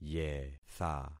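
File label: "yetha", concatenated syllables; Affixing experiment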